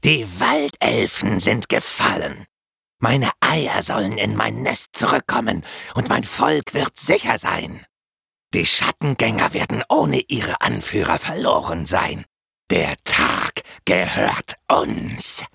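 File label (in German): Kreatur hinterhältig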